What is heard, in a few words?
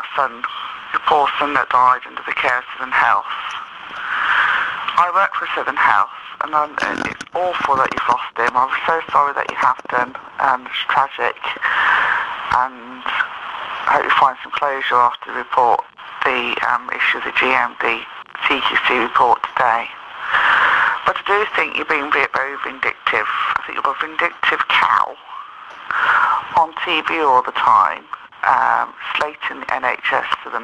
Telephone message